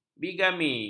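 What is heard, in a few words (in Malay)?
"bigami", Malay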